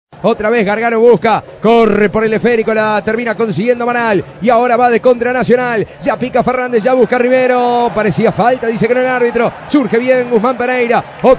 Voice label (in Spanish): Gol clásico; Canobbio